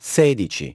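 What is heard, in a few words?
"sixteen", English; iOS 4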